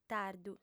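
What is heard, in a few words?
"tardu", female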